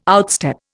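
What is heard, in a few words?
"outstep", Indian English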